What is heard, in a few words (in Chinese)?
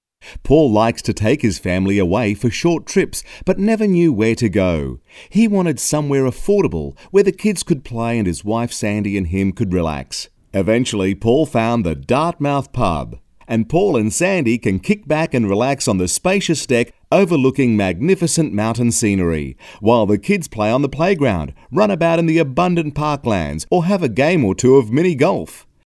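外籍男英_外语_英式英语_专题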